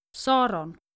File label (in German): "Saron", daenische maedchennamen